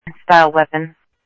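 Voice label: Sheriff West County, scanner audio trunk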